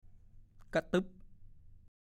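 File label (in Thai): "กะตี้กะต้วด" ภาษาโคราช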